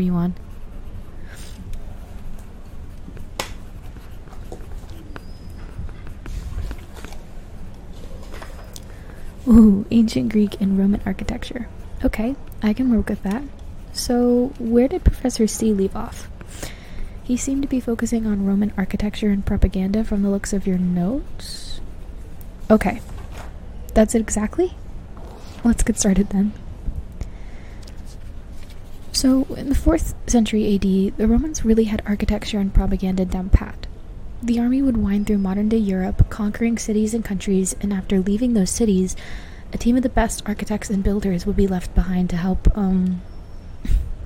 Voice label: Art History Tutoring Session, Tutor, Ambience, SFX; F4A; College; Academic; Roman